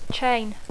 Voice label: "chain", English

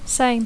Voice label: "same", English; adjectiu; mateix; igual

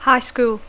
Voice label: "high school", English

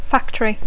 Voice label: "factory", English; fàbrica

